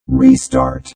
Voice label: Robot Voice, Robot Type 2 voice; Robot Sound Effects; LynneMusic